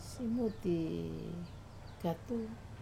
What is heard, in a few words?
"simuti katu", lime, wamesa